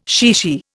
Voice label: "chichi", Indian